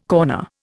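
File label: "corner", Indian English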